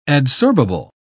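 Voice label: "adsorbable", British English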